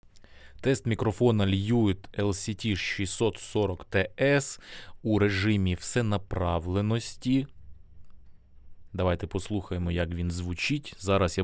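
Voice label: lewitt lct 640 ts omnidirectional; usenapravlenij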